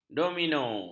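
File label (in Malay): "domino", Malay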